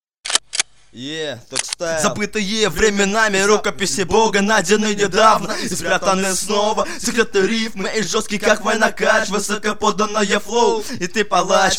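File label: battle acapella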